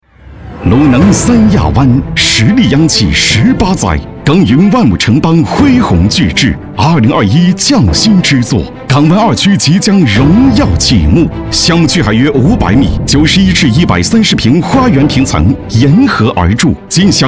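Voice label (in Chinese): 男【电台】大气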